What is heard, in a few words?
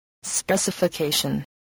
"specification", English voice